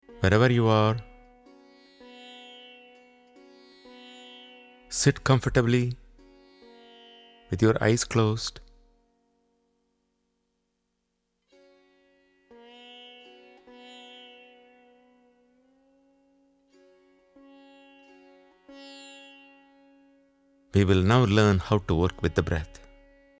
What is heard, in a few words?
Breath Meditation